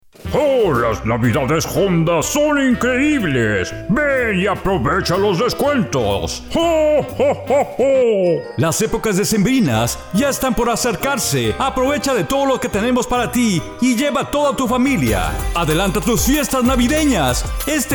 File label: Male